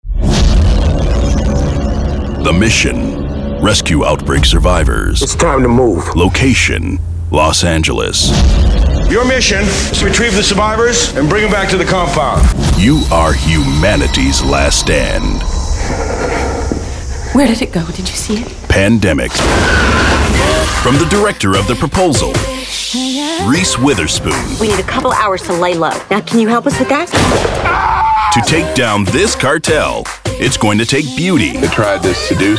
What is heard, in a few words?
Trailer